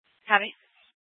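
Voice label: police department Dispatch, scanner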